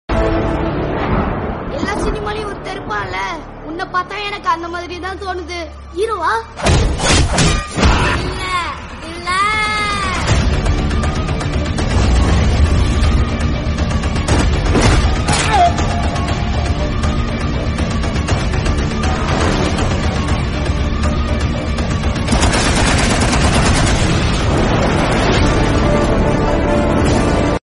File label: Villain Dialogue Tamil Ringtone